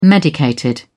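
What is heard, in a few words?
"medicated", English, British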